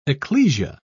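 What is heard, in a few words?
"ecclesia", British English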